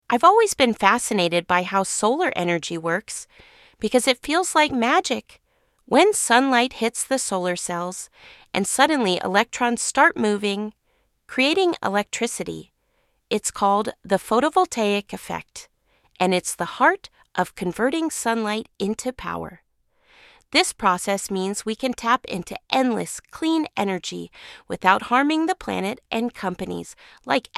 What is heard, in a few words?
voiceover; How Solar Panels Generate Power; ExxSun; Explain the photovoltaic effect and how solar cells convert sunlight into electricity; Kaylin AI